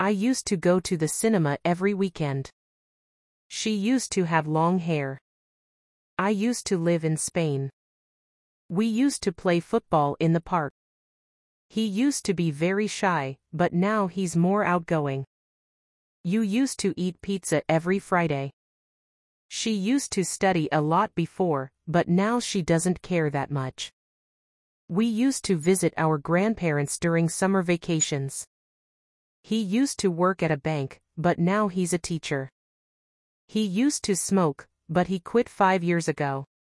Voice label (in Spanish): gramatica clase ingles; What does Emma want to explore in Italy?